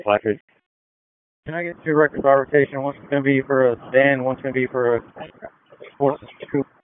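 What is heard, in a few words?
Police District Dispatch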